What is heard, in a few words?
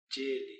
"jeeli", Palula